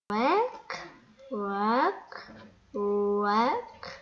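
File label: "lick", spoken word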